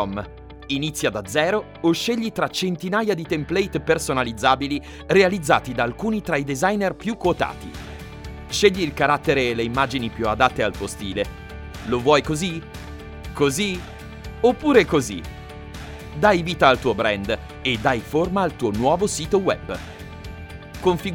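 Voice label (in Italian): Web Contents, Native Italian Voice Over Artist; Home Studio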